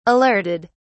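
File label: "alerted", British English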